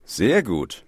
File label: Conversation